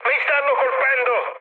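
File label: Italian aircraft damaged mood med, speech; War Thunder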